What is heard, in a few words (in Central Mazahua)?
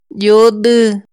"dyodu̷", Mazahua; INALI; AprendeMazahua